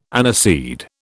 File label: "aniseed", British